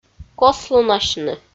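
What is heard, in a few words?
"кослунасьны", Komi, female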